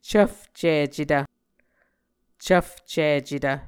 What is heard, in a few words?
"cvfcicetv", muscogee; Mvskoke Words with Voicing Consonants; A Dictionary of Creek/Muskogee; SIL International; Onvkv Ohhvyayices